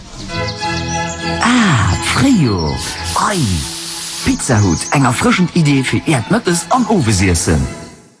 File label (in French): pub allemande; Pizza Hut